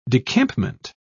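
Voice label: "decampment", English, British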